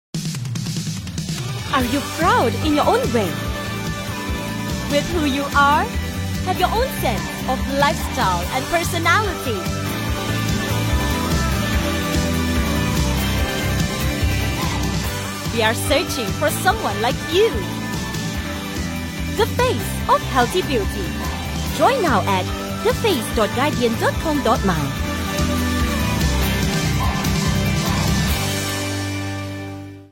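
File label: English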